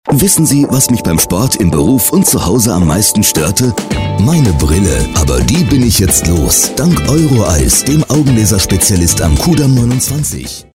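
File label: German male explainer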